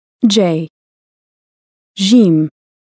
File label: "jeem", Arabic